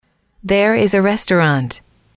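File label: there is a restaurant, female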